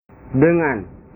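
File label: "dengan", Indonesian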